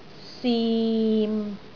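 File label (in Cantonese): "sim6", Cantonese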